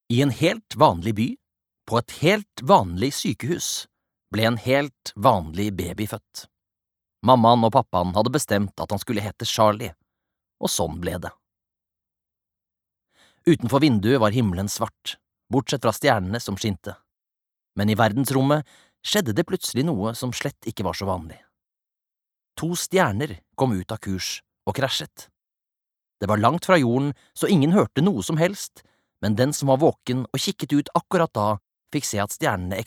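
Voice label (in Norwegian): fortellende, Norwegian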